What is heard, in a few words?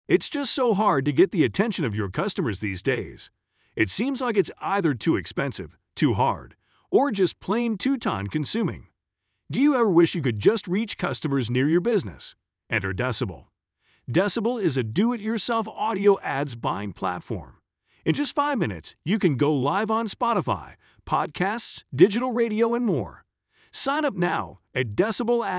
ad voice